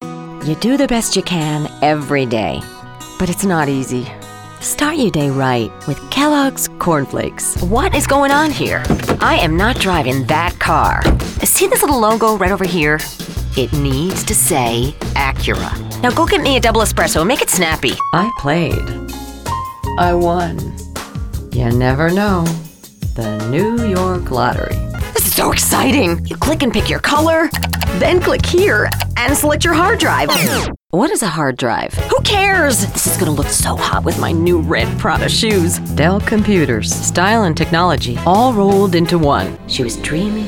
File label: Commercial